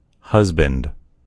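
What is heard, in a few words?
"husband", American English; gcompris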